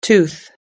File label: "tooth", us english